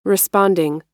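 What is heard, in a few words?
"responding", American English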